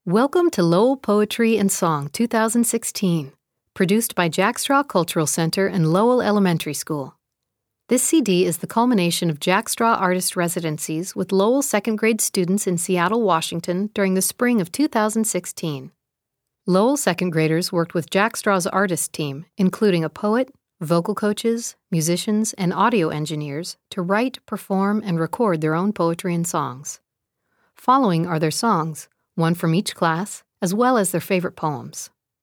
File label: Opening Narration; Lowell Elementary Poetry and Song 2016